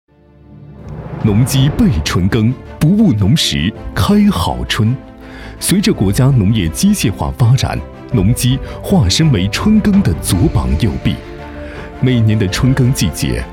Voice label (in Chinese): 男 大音 大气专题 农机